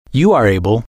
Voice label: you are able to can, English

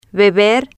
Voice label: "deber", Spanish

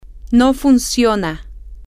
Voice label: x does not work, spanish, F

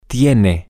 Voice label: he she it is temporary, spanish, M

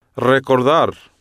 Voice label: "recordar", Spanish

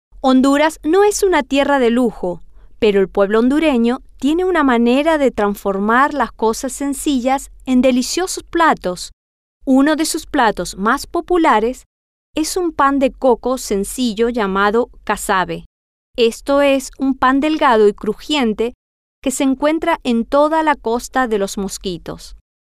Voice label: Spanish; honduras No Es Una Tierra

